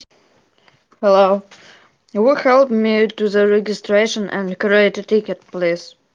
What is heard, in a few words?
voice message